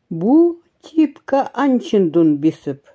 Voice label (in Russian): ЭВЕНСКИЙ Мы живем рядом с тайгой; Тайга; Бу типка анчиндун бисэп.